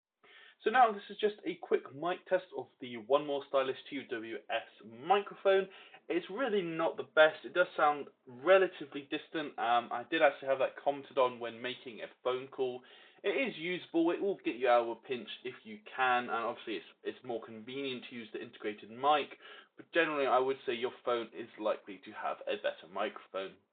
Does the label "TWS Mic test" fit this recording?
Yes